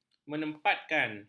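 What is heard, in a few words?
"menempatkan", Malay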